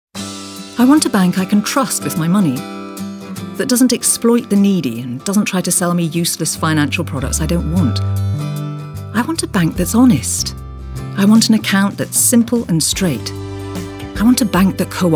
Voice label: Commercial

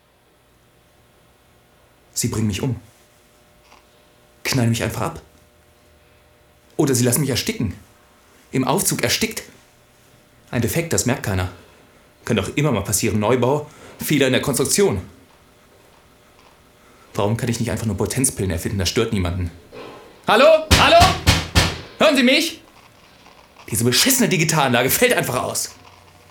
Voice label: Narrative German

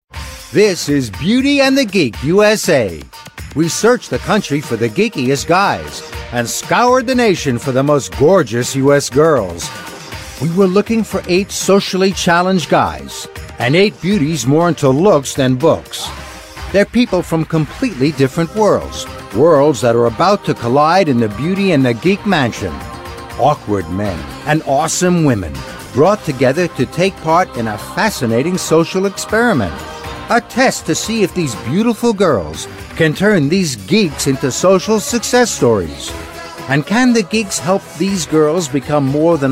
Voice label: Narration

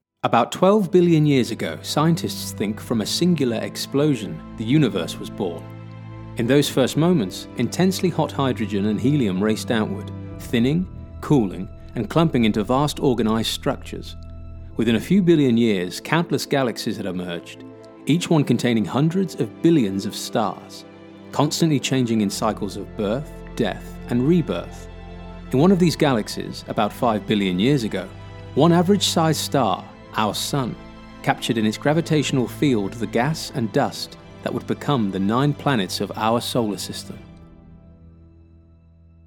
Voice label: Corporate English

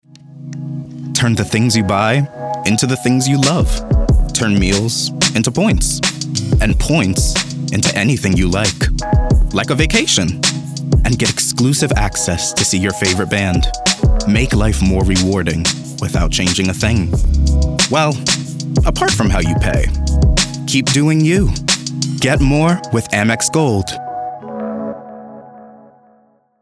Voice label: COMMERCIAL

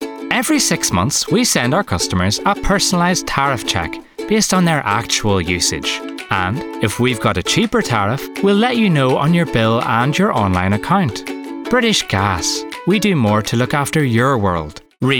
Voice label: COMMERCIAL

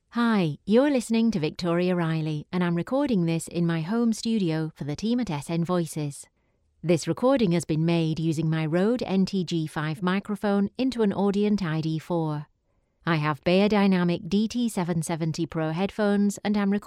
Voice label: home studio sample

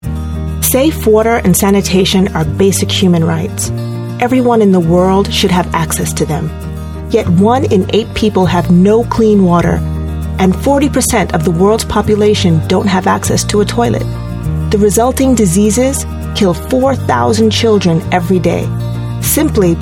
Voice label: American charity appeal; Wateraid

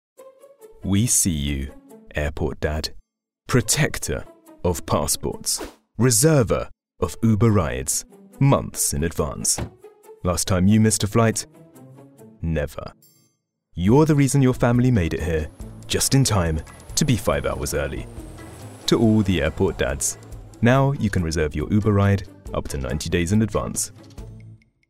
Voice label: Commercial; Uber

